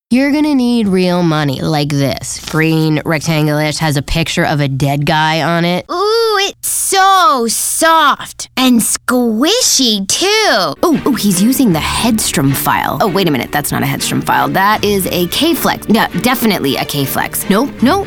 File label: ANIMATION